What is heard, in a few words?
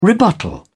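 "rebuttal", British English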